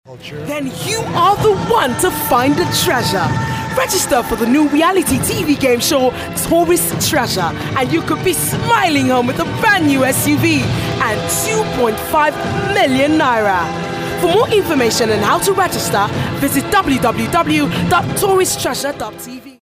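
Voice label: English African female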